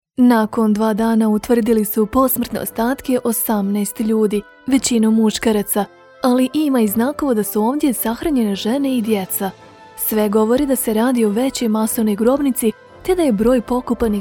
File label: bosnian tv shows; Professional Serbian native voice-over actor from Belgrade